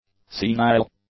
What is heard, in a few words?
"senile", English; adjectives